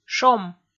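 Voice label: "шом", Komi, female; kpv audio dict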